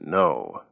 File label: "no" emphatic man; Voice; expletives